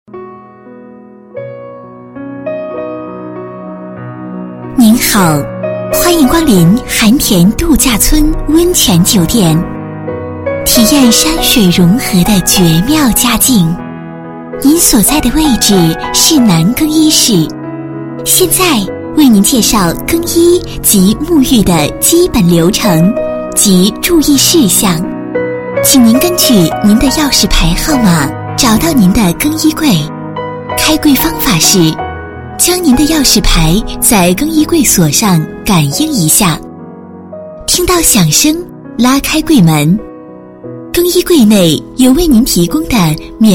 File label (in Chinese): 女 广告 温泉度假村提示